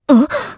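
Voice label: Vox, voices, Japanese; Priscilla; kings raid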